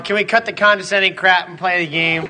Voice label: condescending